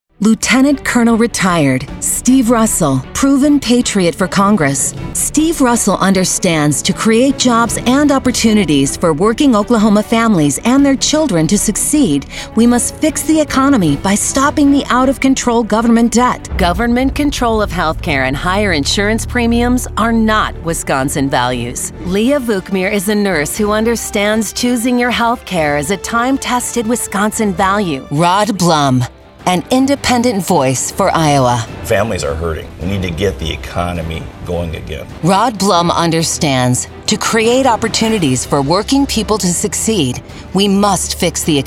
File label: political women